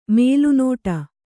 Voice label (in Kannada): "ಮಲೆನಾಡು", kannada